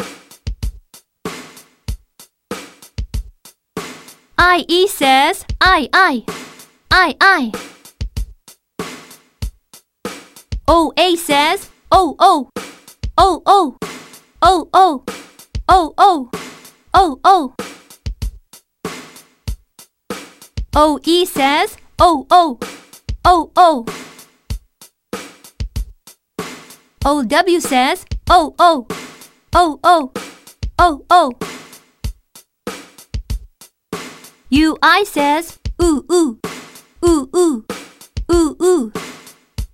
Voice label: Polite Vowels Point And Say The Key Words; Unit 2